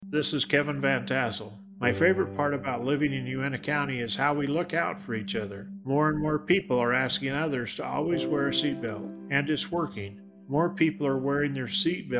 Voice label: Radio Ad; UINTAH